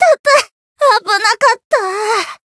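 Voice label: win barely, Japanese; myrus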